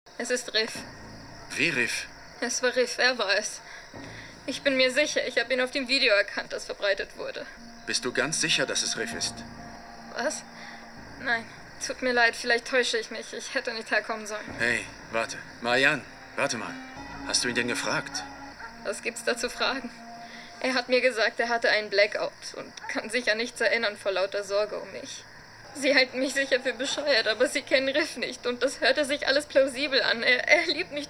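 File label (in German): ängstlich weinerlich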